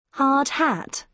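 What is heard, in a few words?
"hard hat", British English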